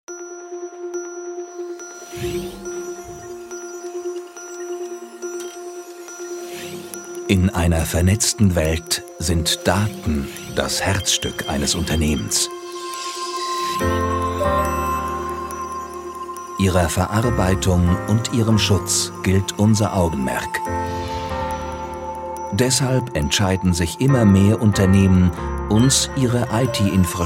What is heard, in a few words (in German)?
Imagefilm Off-Stimme Hoch-dt. Sprecher; EBM Telecom